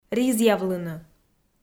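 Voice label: "ризъявлыны", Komi, female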